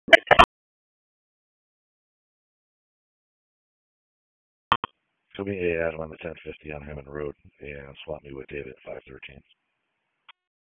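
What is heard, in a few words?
Raleigh Police Southeast District Dispatch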